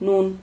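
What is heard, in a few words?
"nun", Spanish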